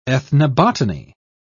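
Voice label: "ethnobotany", British English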